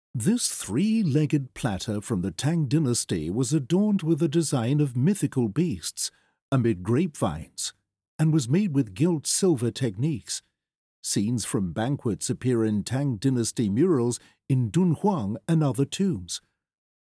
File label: ENG; audio guide